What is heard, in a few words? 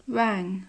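"rang", British English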